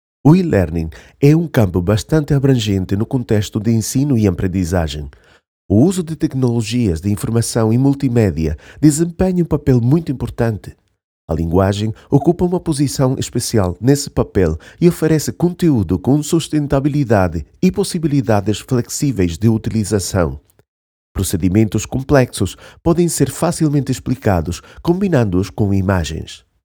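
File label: eLearning text European Portuguese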